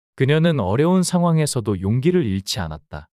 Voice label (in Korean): 문장 남자; 그녀는 어려운 상황에서도 용기를 잃지 않았다